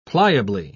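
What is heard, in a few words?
"pliably", British English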